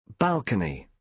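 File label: "balcony", British English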